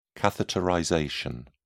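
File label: "catheterization", British English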